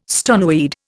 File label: "stoneweed", Indian